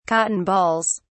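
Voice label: "cotton balls", British English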